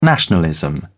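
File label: "nationalism", British English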